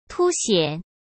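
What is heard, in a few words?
"凸显", Mandarin Chinese; chinese flashcards; hsk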